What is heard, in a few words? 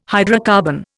"hydrocarbon", Indian